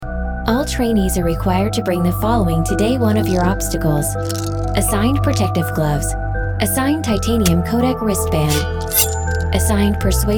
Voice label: A.I. Computer